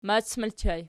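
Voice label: "mac" ergative plural, Archi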